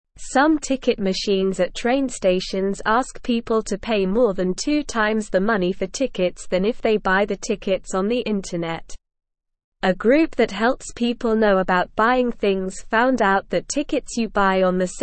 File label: Beginner SLOW Reading, English; English Newsroom